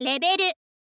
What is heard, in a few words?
"level", girl; voice